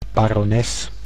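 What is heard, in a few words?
"barones", Dutch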